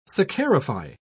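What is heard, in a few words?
"saccharify", English, British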